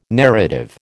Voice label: "narrative", American